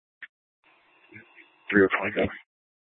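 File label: police dispatch, Scottsdale PD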